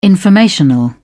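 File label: "informational", British English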